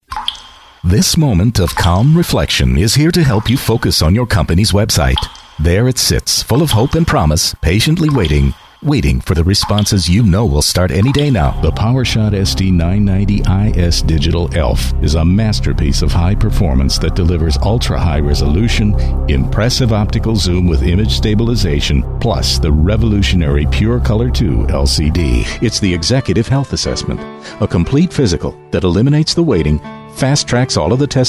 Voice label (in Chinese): 外籍男; The serious one